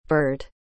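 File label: "bird", English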